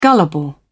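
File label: "gullible", British English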